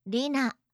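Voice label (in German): "rina", japanische Mädchennamen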